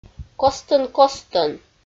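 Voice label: "костӧн-костӧн", Komi, female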